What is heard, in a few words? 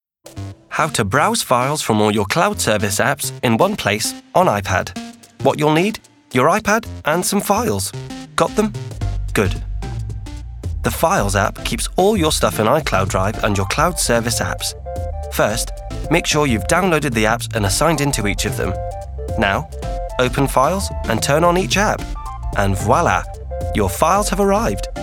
male english english rp accent corporate explainer; icloud